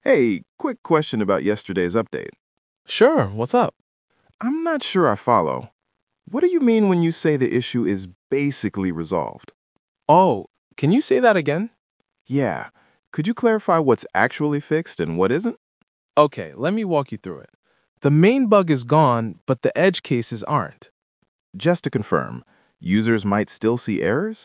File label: Conversational patterns dialogue